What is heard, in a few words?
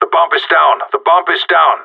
spotted loose bomb, radio; GSG9